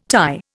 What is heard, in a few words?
"Thai", Indian